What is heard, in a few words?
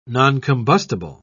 "noncombustible", en uk